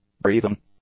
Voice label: "breathing", English; nouns

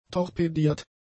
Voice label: "torpediert", German; adjectives

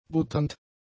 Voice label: "butternd", German; adjectives

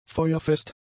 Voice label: "feuerfest", German; adjectives

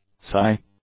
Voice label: "sigh", English; nouns

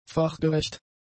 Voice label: "fachgerecht", German; adjectives

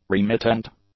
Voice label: "remittent", English; adjectives

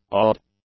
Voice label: "awed", English; adjectives

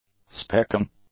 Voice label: "specking", english; adjectives

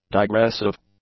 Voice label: "digressive", english; adjectives

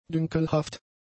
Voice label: "dünkelhaft", German; adjectives

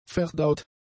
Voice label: "verdaut", German; adjectives

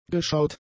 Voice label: "geschaut", German; adjectives

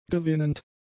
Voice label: "gewinnend", German; adjectives

